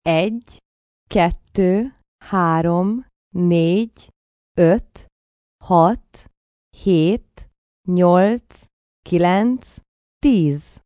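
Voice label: Numbers Hungarian, female, foreign, Hungarian, counting from 1 to 10, (human voice speaking); Talking Sound Effects; VoiceBox; Twistedtracks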